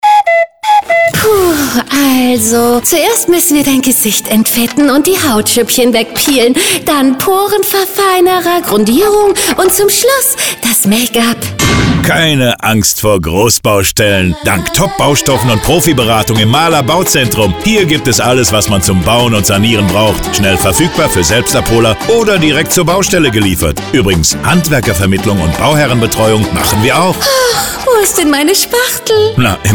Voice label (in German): Radiospot; Mahler Bauzentrum Radiokampagne; mahler kampagne 2016